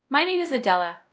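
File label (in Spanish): Voice Acting, es, female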